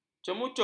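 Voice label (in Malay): "cemucup", Malay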